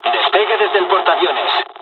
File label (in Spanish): takeoff, dialogs spanish; War Thunder